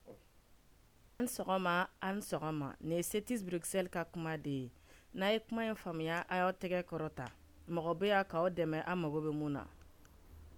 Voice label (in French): Bambara